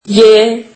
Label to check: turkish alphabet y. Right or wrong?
Right